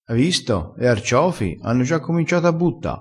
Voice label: "butta", vocabolario dialettale crespinese

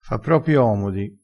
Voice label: "fa propi omodi", vocabolario dialettale

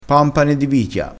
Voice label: "pampana", crespinese

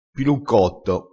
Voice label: "piluccotto", crespinese

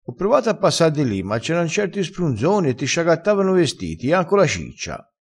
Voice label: "sprunzone", vocabolario dialettale

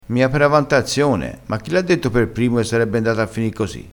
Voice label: "avantazione", crespinese

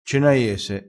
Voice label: "cenaiese", crespinese

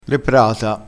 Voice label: "prata", crespinese, vocabolario dialettale

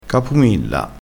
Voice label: "capumilla", crespinese

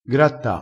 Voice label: "gratta", crespinese

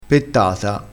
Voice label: "pettata", crespinese

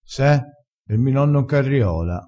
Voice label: se er mi nonno, vocabolario dialettale

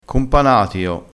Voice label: "companatio", crespinese

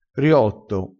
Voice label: "riotto", crespinese

